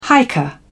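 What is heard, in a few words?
"hiker", British English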